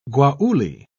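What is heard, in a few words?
"guayule", British English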